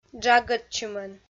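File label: "джагӧдчымӧн", Komi, female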